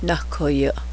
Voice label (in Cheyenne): bears, Cheyenne